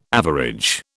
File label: "average", British